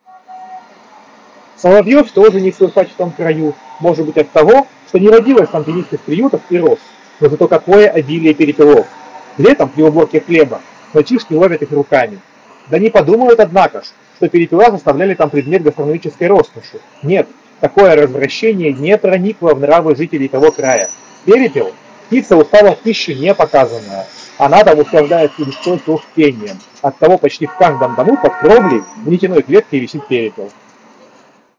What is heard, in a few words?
Mic noise; Запись с микрофона гарнитуры